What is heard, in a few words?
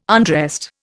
"undressed", Indian